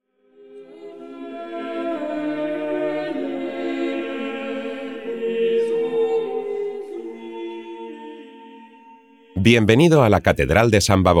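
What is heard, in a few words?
Spanish; LamGods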